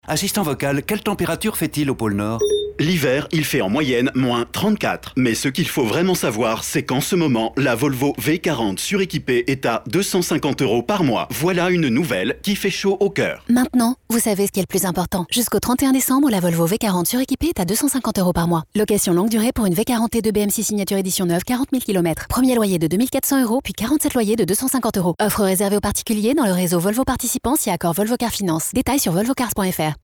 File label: RADIO; VOLVO; V40 EDITION POLENORD